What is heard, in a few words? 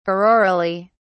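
"aurorally", British English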